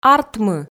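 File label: "артмы", Komi, female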